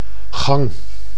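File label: "gang", Dutch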